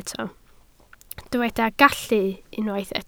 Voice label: "gallu", Welsh